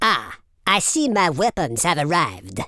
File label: drop, speech Super Villain